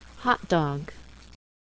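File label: "hot dog", English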